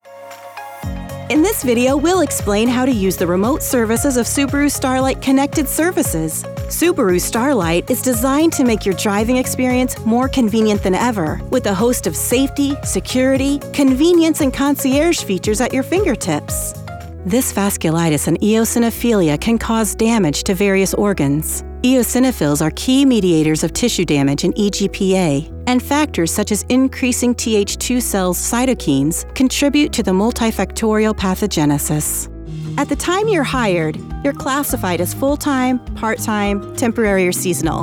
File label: Explainer